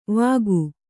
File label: "ವಾಕು", Kannada